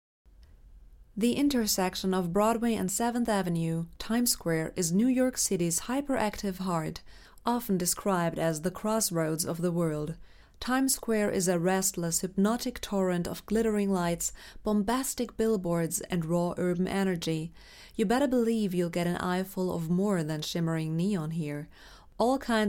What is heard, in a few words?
US DOCUMENTARY; TIME SQUARE